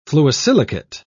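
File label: "fluosilicate", British English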